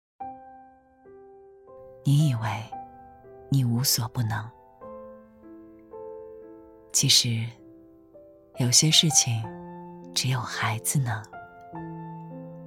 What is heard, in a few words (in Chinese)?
旁白 妈妈 磁性, 女国